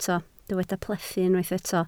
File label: "plethu", Welsh